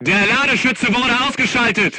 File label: voice message charger contused, crew tanks german